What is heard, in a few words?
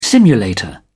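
"simulator", British English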